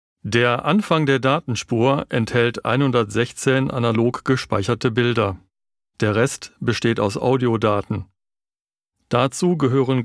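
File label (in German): Sprecher Sachtext serioes informativ, Stil: Informativ, wissenschaftlich, ruhig, vertrauensvoll; Dokumentation über die Voyager Schallplatten(Sachtext / Voiceover)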